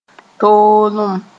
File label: "toolem", Mooré